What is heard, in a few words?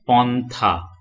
"pontha", Bengali